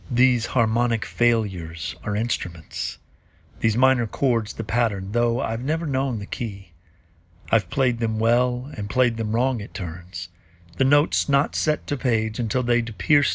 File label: Narration; Backleading